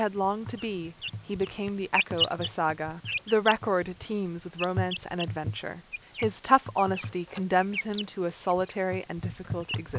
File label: woman; FullSubNet-plus